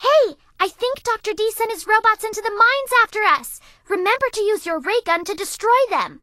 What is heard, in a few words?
English Voice